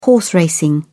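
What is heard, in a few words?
"horse racing", British English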